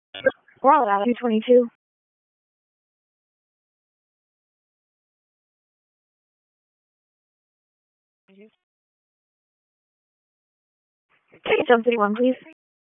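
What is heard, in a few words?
Police District Dispatch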